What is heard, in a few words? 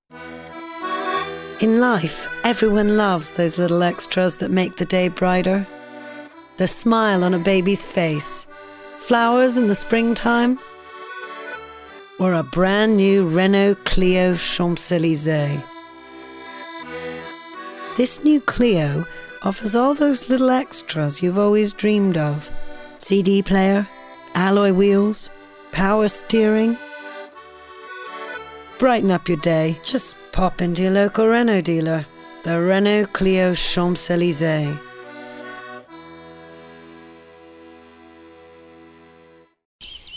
voiceover